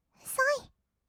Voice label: "そい", kawaii voice; ASMR; 色々なワンショット

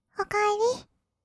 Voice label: "おかえり", kawaii voice; 挨拶

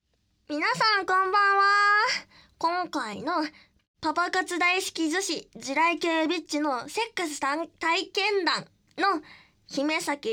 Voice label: フリートーク

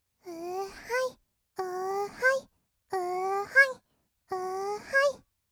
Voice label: 「うーはい」, kawaii voice, 合いの手; 1200 kawaii voice!!; ASMR

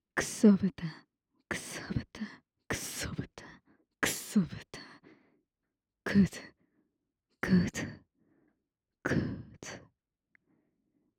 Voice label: ささやき連呼 右耳

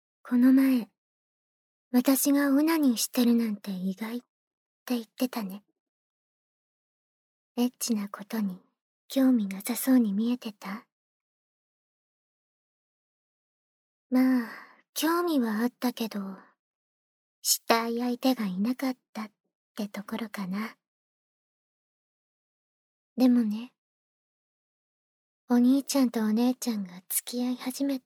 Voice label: noSE ダウナー系義妹のうっとりボイスで甘やかし性感お口マッサージ

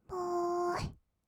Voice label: "ぼーっ", kawaii voice; 1200 kawaii voice!!; 色々なワンショット

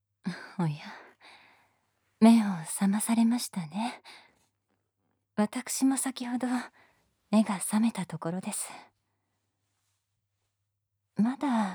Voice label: イチャイチャ囁き添い寝; ASMR

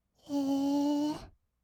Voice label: えー, kawaii voice; ASMR; 色々なワンショット

